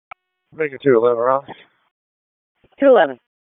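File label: Raleigh Police Northeast District Dispatch, p25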